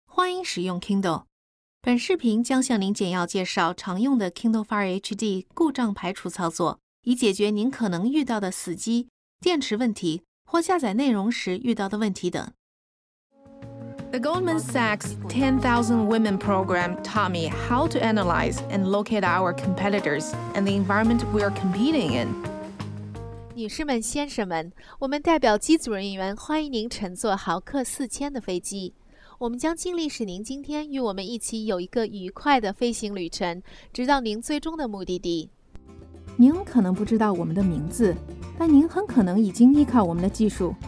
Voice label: chinese mandarin commercial; Young and trendy Mandarin voice.